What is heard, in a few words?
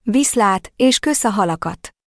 so long, hungarian